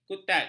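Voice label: "kutat", Malay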